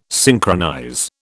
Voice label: "synchronize", British

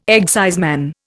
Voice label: "exciseman", Indian

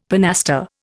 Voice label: "pinaster", Indian; Dictionary

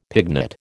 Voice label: "pignut", American

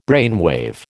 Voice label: "brainwave", American

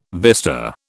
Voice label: "vista", British

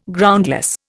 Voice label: "Groundless", Indian

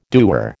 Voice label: "dewar", American

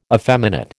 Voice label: "effeminate", American

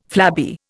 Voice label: "flabby", Indian

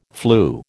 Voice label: "flew", American

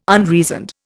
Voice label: "unreasoned", Indian

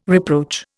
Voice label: "reproach", Indian

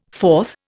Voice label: "fourth", Indian

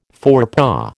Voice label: "forepaw", American

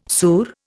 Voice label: "surra", Indian

